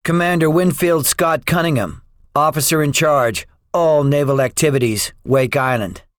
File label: debriefing, english; War Thunder